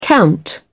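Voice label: "count", British English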